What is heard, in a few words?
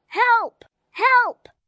Distress Help, Distress, 1 boy, 7 yrs; Exclamation Sound Effects